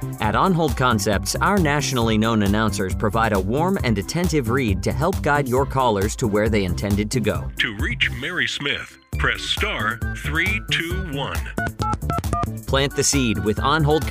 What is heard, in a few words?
Phone Tree